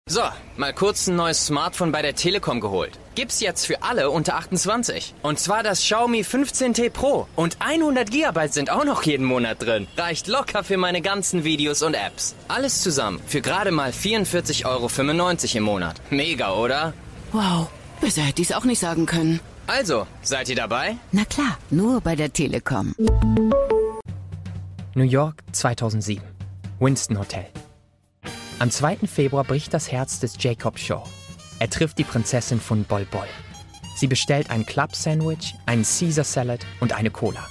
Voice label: German